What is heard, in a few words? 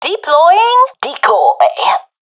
throwing decoy, professional fem; vo; Counter Strike Model Pack; CS:GO